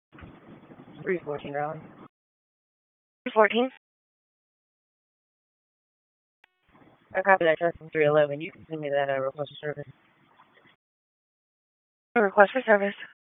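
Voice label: Police Northeast District Disp, radio; Raleigh; p25; wake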